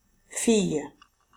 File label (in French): fille; famille